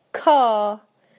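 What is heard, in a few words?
"car", British English; PictureDictionary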